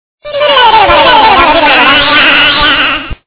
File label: rambles